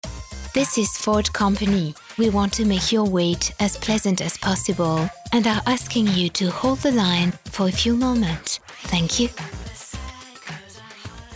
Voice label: ANG repondeur hold the line; voix-off; FORD